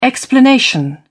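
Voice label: "explanation", English, British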